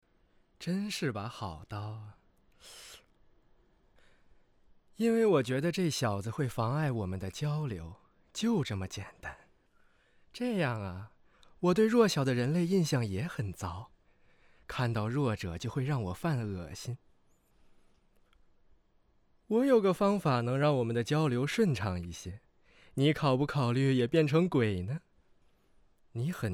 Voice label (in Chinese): 干音